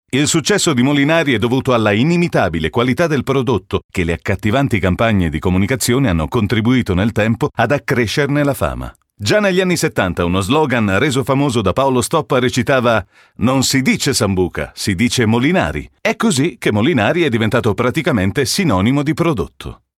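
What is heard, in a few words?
Italian commercial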